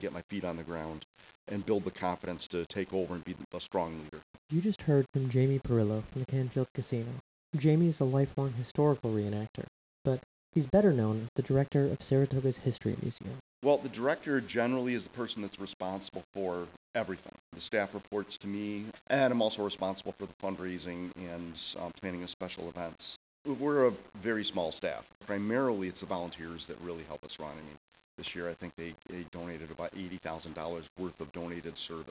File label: interview with sound effect